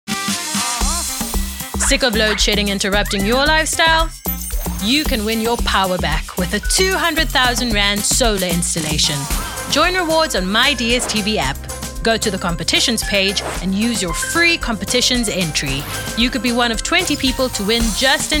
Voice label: English Medium Sell

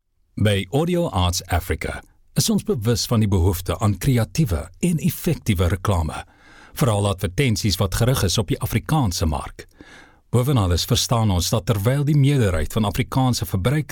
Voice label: Afrikaans Corporate

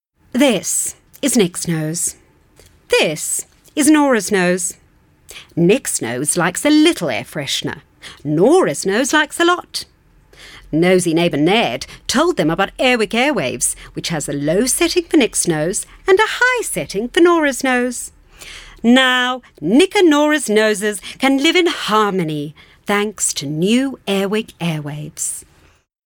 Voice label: English Storyteller

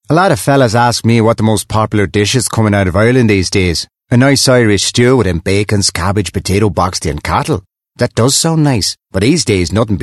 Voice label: Accent Irish

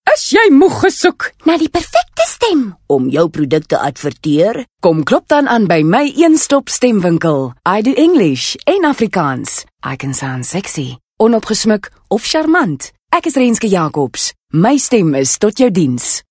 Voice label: Showcase Various Styles

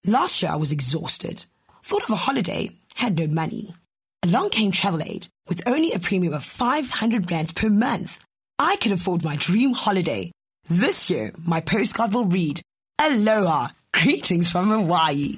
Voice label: English Conversational

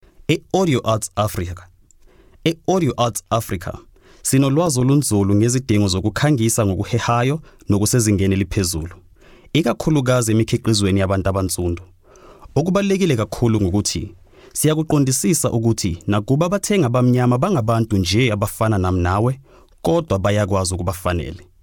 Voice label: Zulu Corporate

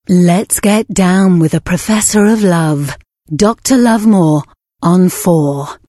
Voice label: English Sexy

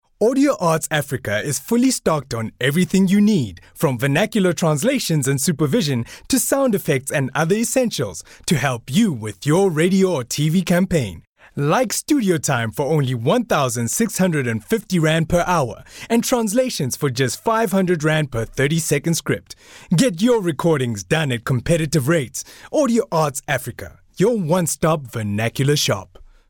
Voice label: English Retail

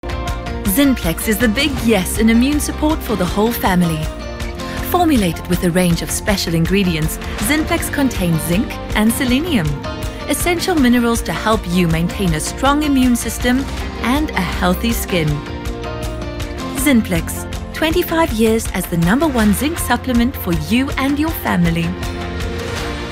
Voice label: English Bright

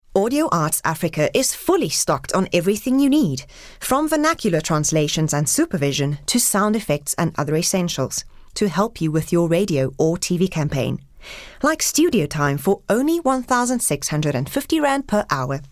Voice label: English Retail

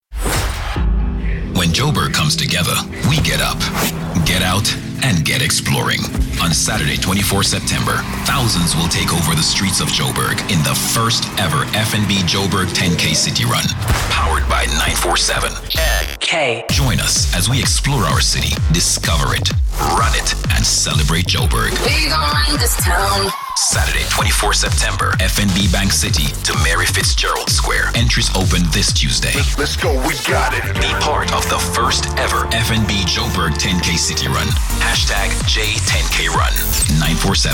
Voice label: English Promo

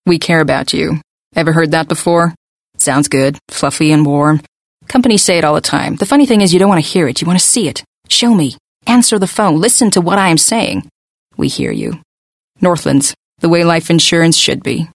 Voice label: Accent American

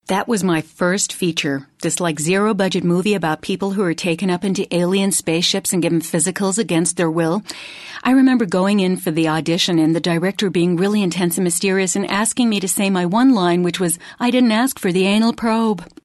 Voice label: Accent American

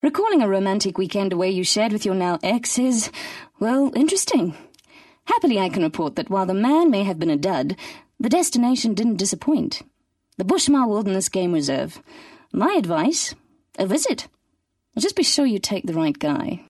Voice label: English Conversational

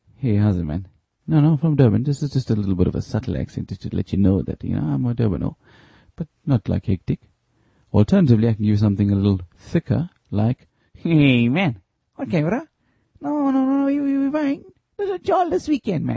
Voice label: Accent Durban Indian

